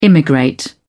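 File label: "immigrate", British English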